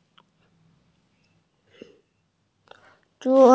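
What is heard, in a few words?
"table", Mandarin Chinese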